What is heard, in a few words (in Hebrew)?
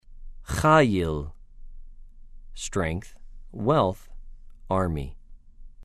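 "חַ֫יִל", Hebrew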